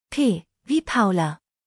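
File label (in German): "Paula", German spelling alphabet; P wie Paula; buchstabierung alt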